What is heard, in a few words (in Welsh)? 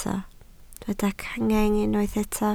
"cangen", Welsh